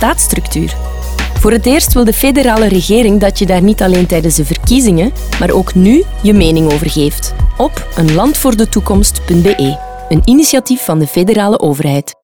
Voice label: Radio Spot